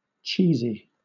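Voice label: "cheesy", British English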